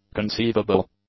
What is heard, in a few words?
"conceivable", English; adjectives